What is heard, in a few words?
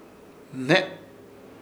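"Hne", Chamacoco word, crecido; Ishir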